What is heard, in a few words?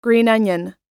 green onion us